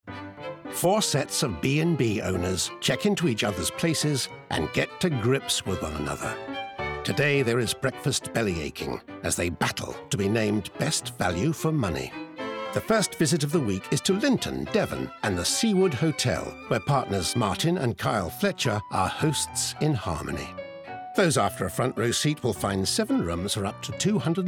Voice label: Voicetape Documentary; Four In A Bed